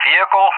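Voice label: "vehicle", English; JTAC; Target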